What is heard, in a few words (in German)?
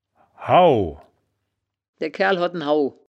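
"hau", wittlicher woerterbuch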